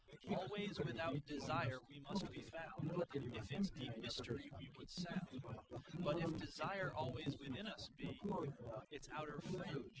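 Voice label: male instantaneous source; SiSEC08; SiSEC underdetermined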